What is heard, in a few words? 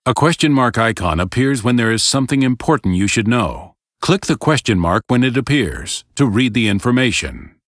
tutorial voice; lineage2